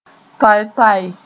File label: "toay-toay", moore